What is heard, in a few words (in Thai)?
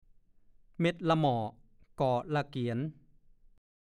"เม่ดทะนา", ภาษาโคราช; เวทนา